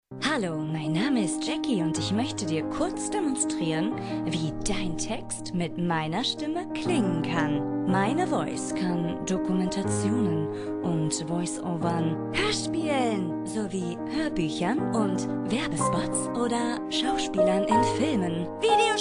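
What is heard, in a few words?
German, female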